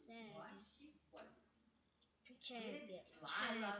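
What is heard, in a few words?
"jig", spoken word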